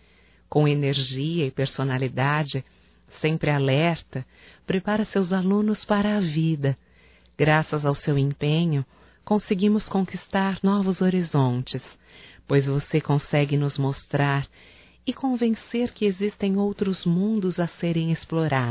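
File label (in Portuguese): HOMENAGEM A PROFESSORA (Feminino), Telemensagens; Homenagens; Telemensagens 2017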